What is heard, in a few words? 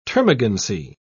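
"termagancy", British English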